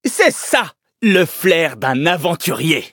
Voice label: levelup, fr; cookierun

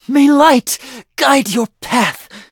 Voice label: hit, en; cookie run

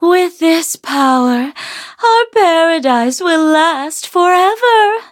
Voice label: levelup, en; Cookie Run

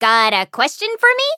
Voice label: menu idle eng; Cream Soda

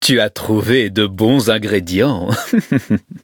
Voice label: levelup, fr; Cookie Run

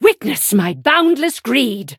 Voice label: battle, en; Cookie Run

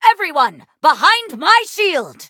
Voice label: battle, en; cookie run

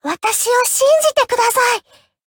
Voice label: levelup ja; Cookie Run

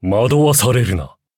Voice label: upgrade, ja

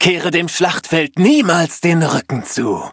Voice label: loading, de; Cookie Run

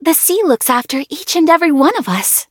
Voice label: loading, en; cookie run

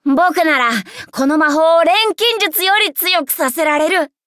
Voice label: upgrade, ja; Cookie Run

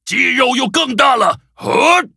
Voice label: levelup zh-hant; Cookie Run

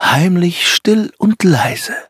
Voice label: level up, de; Cookie Run

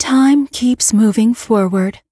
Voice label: levelup en

